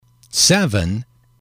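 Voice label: "seven", English, male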